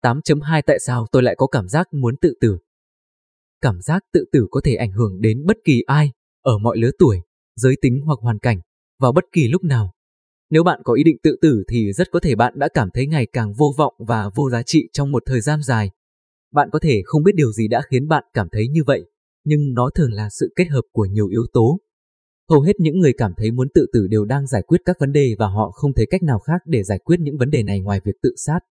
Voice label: Male